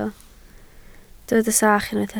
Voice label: "sach", Welsh